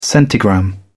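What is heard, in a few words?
"centigram", British English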